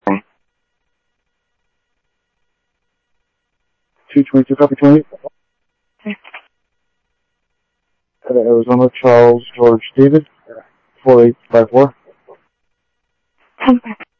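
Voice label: Sheriff West County, scanner audio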